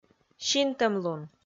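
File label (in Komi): "синтӧмлун", Komi, female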